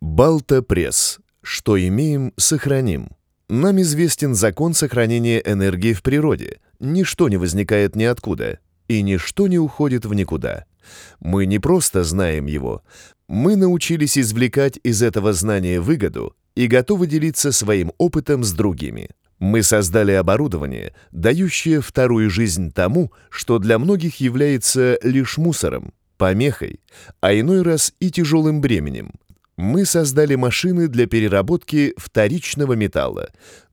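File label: povest Russian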